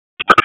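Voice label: Raleigh Police Southeast District Dispatch, p25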